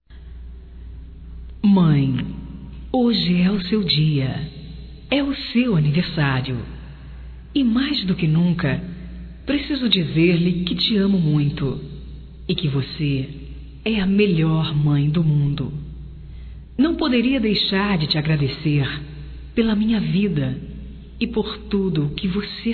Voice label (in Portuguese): mãe, Telemensagens, Aniversarios, fem; Telemensagens 2017